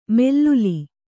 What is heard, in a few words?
"ಮೆಲ್ಲುಲಿ", Kannada